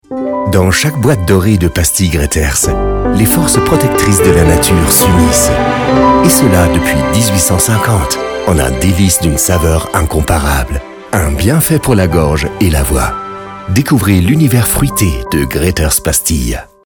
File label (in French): TV FR, Französischer Sprecher